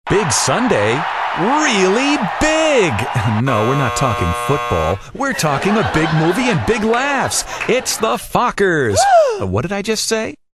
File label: animated